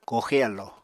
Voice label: "kooxe alló", Apatani

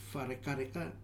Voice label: "Fare Kareka", futuna aniwa

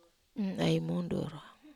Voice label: "ai mundur", wamesa

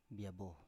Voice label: "biabo", Biak

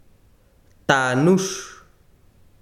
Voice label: besiro cabeza, chiquitano

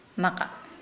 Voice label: "throw", Nukuoro

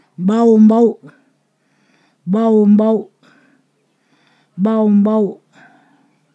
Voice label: "scorpion", Ponosakan

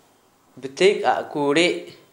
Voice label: prepare salt, Gta, Dialect: Hill; household

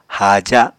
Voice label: "haaja", apatani

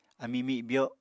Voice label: "ami mibyo", apatani

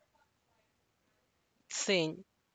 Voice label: thirteen, Santiago Matatlán Zapotec; (Spanish) trece

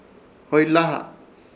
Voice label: "hoylaha" pez armado, Ishir Chamacoco

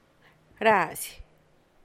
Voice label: set as the sun, San Lucas Quiaviní Zapotec; razy; caer (el sol)

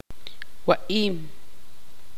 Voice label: tzutujil; comer

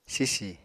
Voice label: "sisi", Apatani

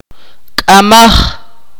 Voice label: "not", akateko

